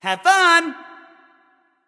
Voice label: vox emote f; smite; mercury; voicepack

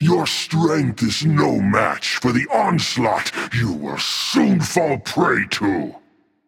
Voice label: taunt, voice; smite; voicepack; tyr

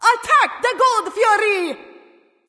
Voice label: vox attack; smite; izanami

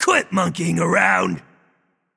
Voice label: taunt directed; hunbatz; smite; kuzenbo

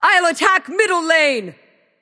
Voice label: vox self attack; smite; arachne

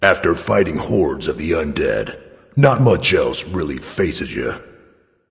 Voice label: taunt, voice; smite; voicepack; tyr

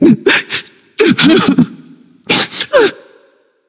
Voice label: boohoo; smite; morganlefay

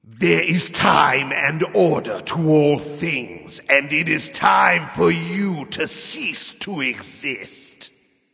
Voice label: taunt, monkey; smite; voicepack; hun batz

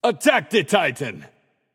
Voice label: vox attack m; smite; heimdallr

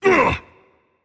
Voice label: ouch rage; chaac

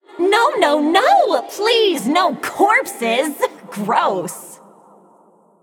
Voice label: taunt directed; smite; izanami; ahpuch; clubs

